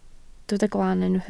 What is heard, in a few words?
"glan", Welsh